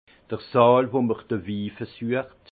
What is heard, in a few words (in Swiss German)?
"saal", alsace; vin; salle de dégustation